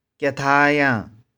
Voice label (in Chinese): "kih4tha3yang1", 玉環